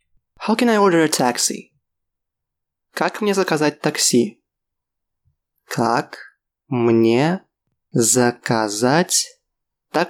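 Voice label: Russian How can I order a taxi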